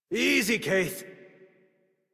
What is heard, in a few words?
Horse Stop Adult; Warframe; Duviri